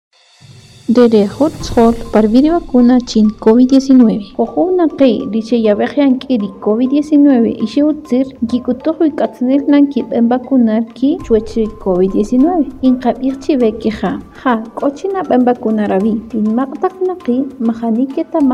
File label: SPOT KAQCHIKEL